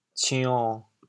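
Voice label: "cion7", Teochew, chaoyang; 匠; jiàng; 白; 潮阳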